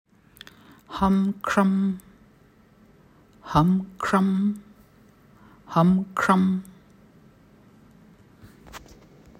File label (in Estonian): ham kṣam, sanskriti; kahe kroonlehega lootoseõiega